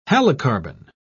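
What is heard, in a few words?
"halocarbon", British English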